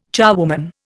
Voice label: "charwoman", Indian